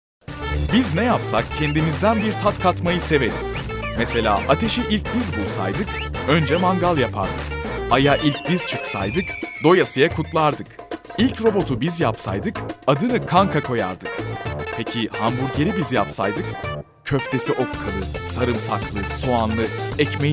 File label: male turkish voice over talent sales